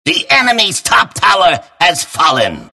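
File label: announcer tower fall enemy top; Clockwerk announcer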